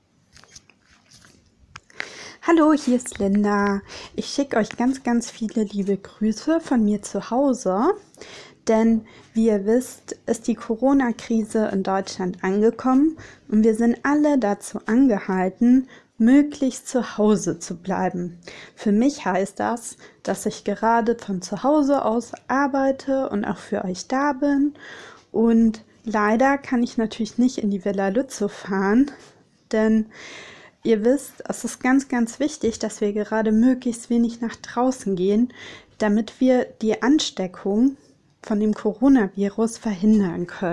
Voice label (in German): Nachricht an Kinder